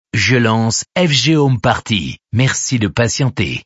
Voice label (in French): lancement radio; fghomeparty